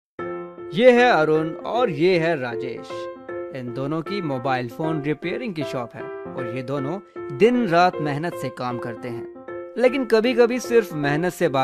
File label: Hindi Voice Over; in Delhi